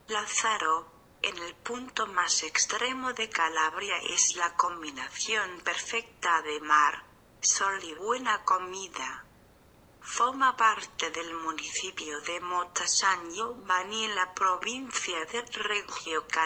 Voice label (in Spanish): Espanol